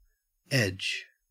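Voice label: "edge", Australian English; pronunciation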